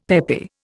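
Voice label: "peppy", Indian English; Dictionary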